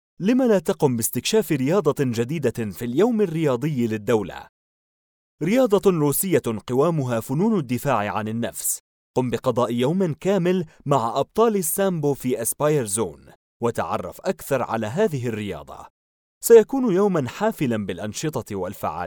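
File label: Arabic general Classical Arabic; GoLocalise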